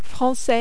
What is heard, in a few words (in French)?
"francais", French